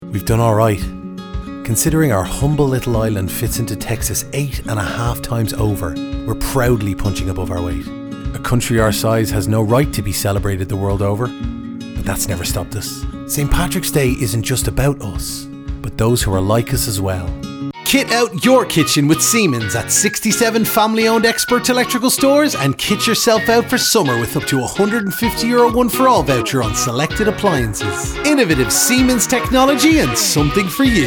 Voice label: commercial